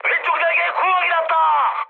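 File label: Korean left wing mood high, speech; War Thunder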